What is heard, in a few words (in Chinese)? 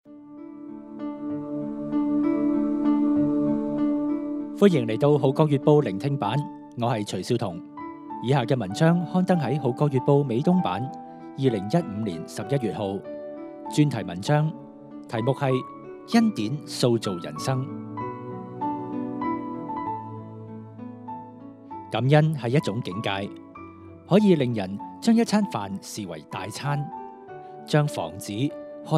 Cantonese